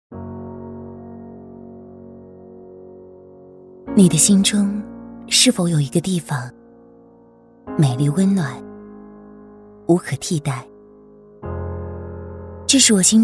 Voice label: 女-独白-走心 自然温柔; 最美地方; 女26-独白《最美地方》-走心 自然温柔

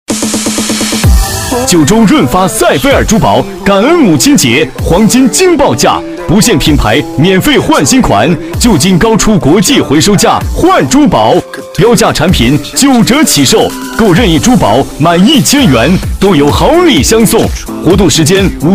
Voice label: 激情促销男, 感恩母亲节黄金惊爆价

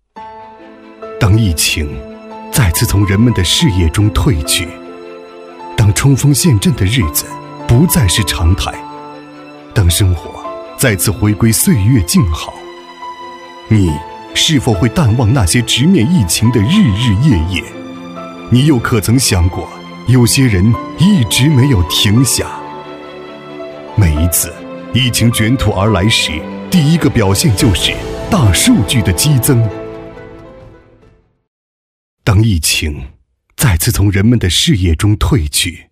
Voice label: 男 抗疫宣传片 走心浑厚; 男B8-高端品质; 沉稳大气; 抗疫宣传片《抗疫》

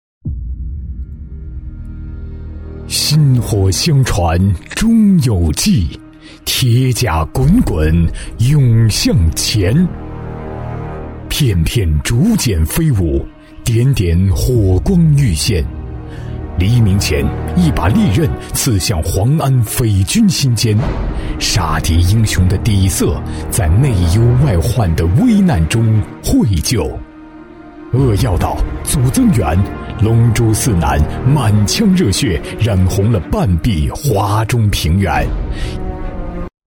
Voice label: 男, 宣传片, 低沉磁性有力; 铁甲滚滚

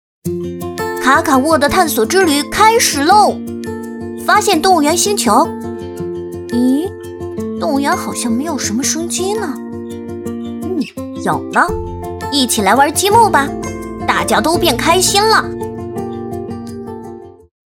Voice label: 女-童声【积木广告】-10-12男童

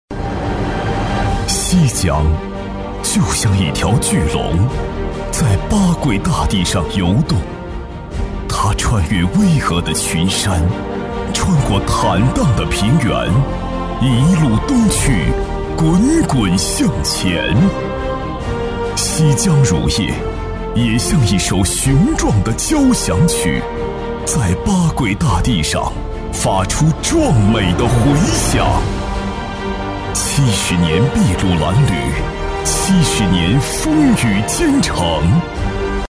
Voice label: 男 企业宣传 雄伟大气; 西江乳业

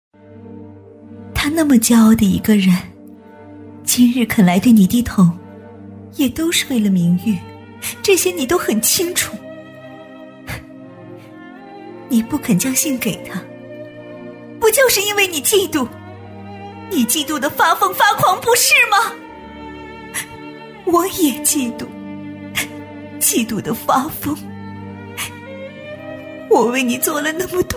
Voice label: 女 电视角色 2种感觉

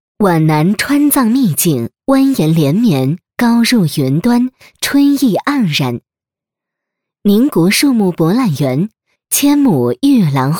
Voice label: 女 宣传片配音 稳重; 旅游宣传-安徽旅游; 成熟知性

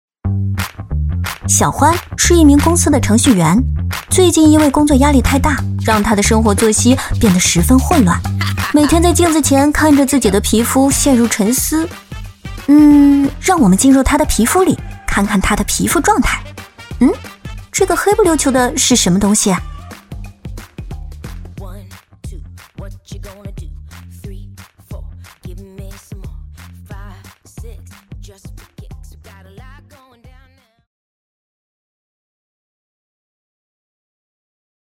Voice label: 女 化妆品广告 素人活力

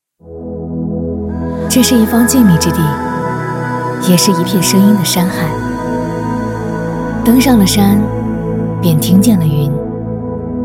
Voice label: 女, 宣传, 风景文旅; 年轻舒缓

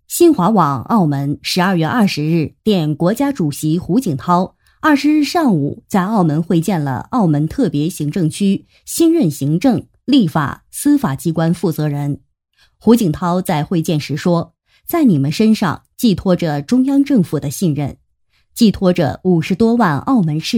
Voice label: 女 新闻播报 大气正式

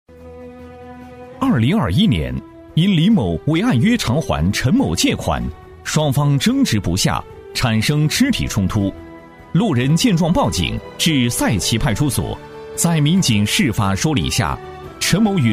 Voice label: 男 公安专题 严肃正气; 正气明亮; 大气浑厚

